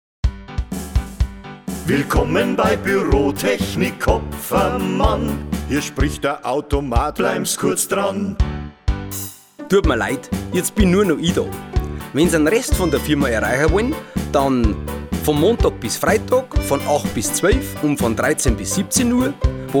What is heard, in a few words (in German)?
anrufbeantworter